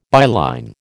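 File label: "byline", American English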